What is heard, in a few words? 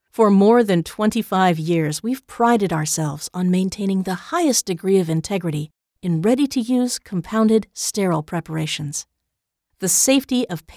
Corporate